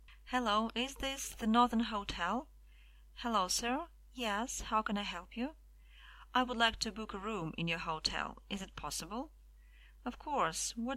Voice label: phone talk